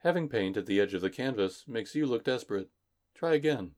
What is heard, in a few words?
Voices edge desperate; The Looker